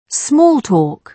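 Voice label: "small talk", British English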